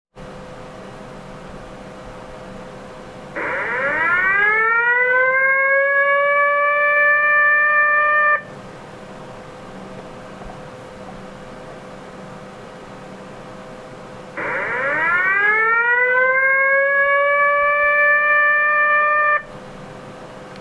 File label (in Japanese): tsunami keihou